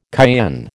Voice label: "cayenne", American